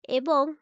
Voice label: Female